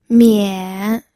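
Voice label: "mian", third tone, pinyin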